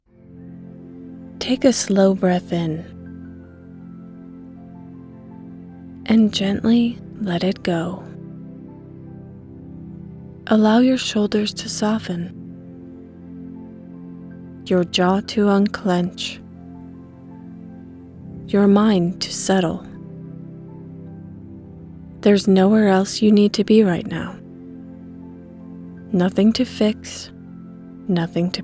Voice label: Meditation

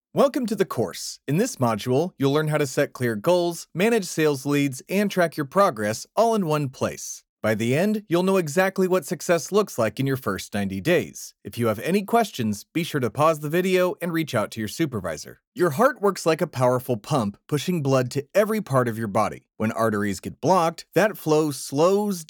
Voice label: Explainer VO; Explainer Reel VO VA 2026

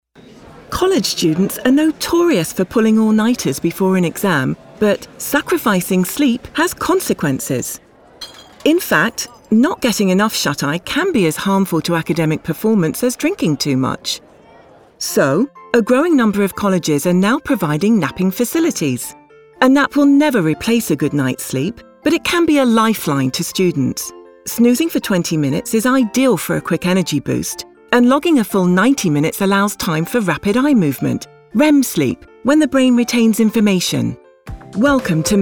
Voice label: Naration Telephony

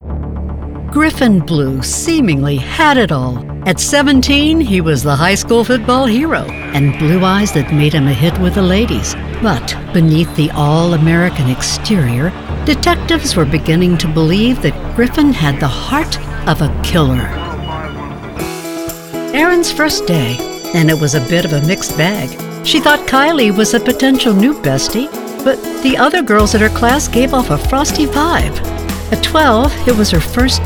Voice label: TV and Documentary Narration

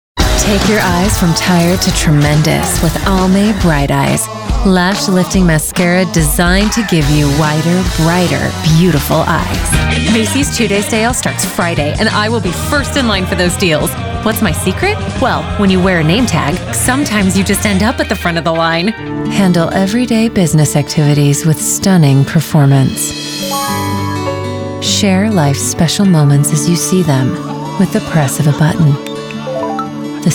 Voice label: Commercials

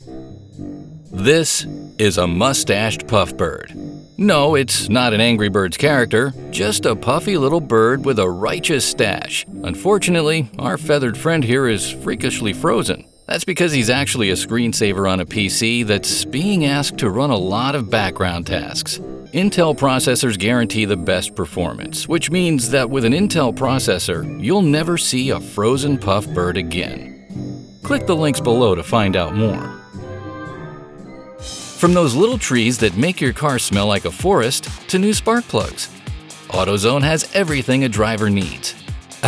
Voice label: EXPLAINERS

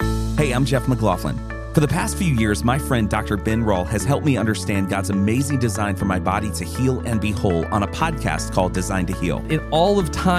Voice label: Radio Ad Spot; Shepherd

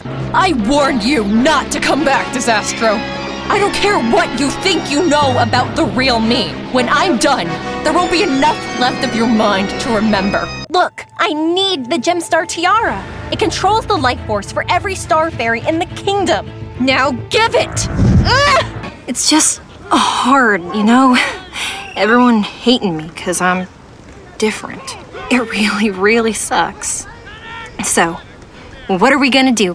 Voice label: Young Adult Hero

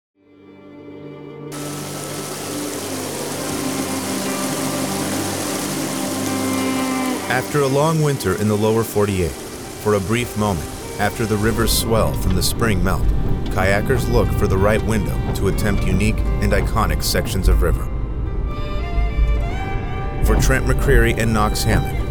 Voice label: Documentary

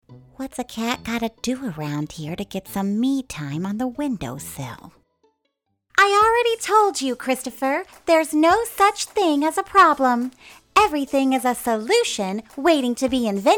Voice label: Animation

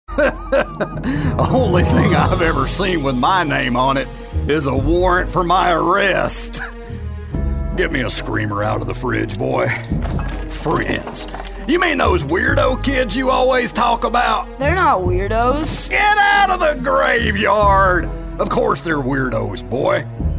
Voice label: Characters Animation

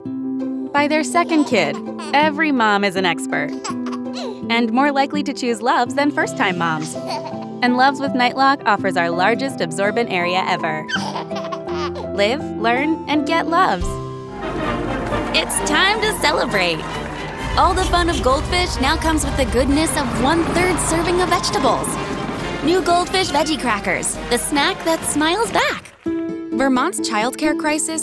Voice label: Young Mom Commercial

